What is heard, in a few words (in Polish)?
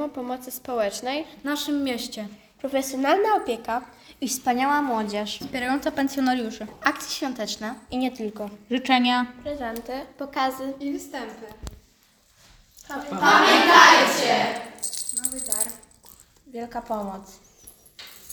Reklama radiowa